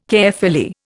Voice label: "Caerphilly", Indian